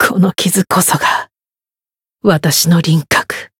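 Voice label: Voice win barely, Japanese; clarte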